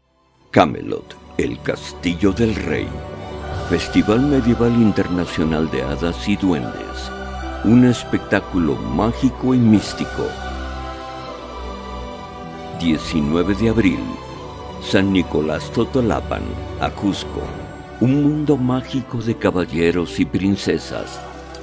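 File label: Español, Spanish; Totolapan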